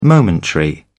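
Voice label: "momentary", British English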